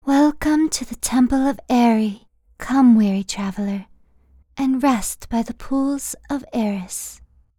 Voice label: Character Breathy Light Adult Female; American English voice-over artist